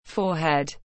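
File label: "forehead", English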